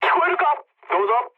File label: jp chat, speech jp